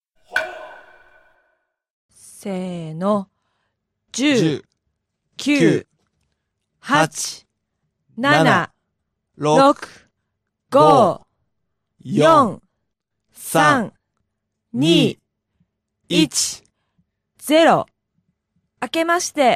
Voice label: dialog; JapanesePod101; Beginner Season 1